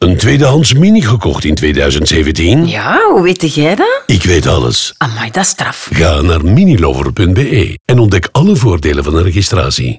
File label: Radio NL Voordelen VO man; Minilover